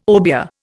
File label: "obeah", Indian